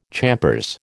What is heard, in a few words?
"champers", American